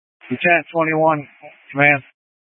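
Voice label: Incident Tactical, scanner audio recording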